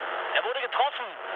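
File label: German aircraft destroyed mood med, speech; War Thunder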